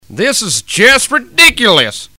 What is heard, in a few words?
Player Loses - Ridiculous, Redneck Voice; blackjack